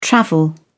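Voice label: "travel", British English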